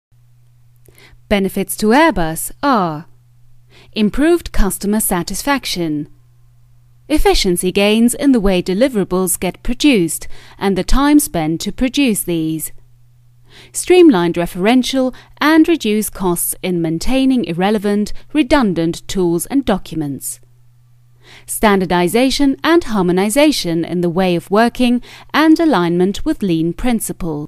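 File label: British elearning; sprecher; englisch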